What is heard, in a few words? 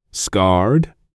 "scarred", English